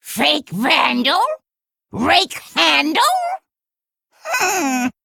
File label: Female Kobold Play